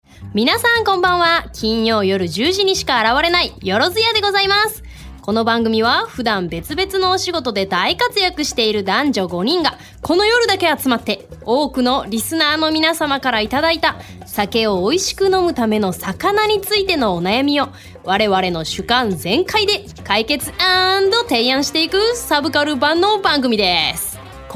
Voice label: radio good